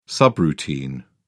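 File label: "subroutine", British English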